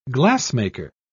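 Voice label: "glassmaker", British English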